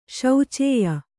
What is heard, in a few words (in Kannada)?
"ಶೋಚ್ಯ", Kannada